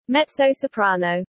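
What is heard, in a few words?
"mezzo-soprano", English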